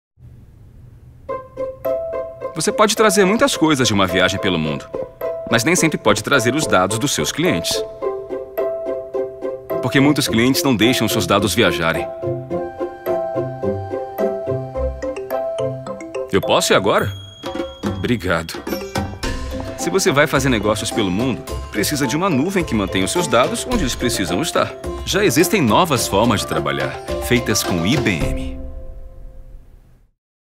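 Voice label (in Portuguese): Locução, Voz Jovem, Português - Brasileiro, Masculino; Locução para SPRITE - Nova Garrafa Azul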